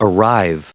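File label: "arrive", US English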